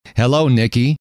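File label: hello, male; trainer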